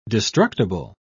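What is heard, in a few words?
"destructible", British English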